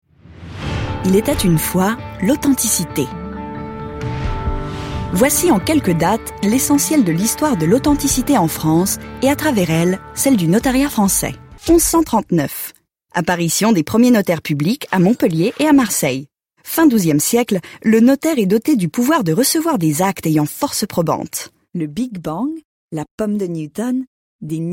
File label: French narration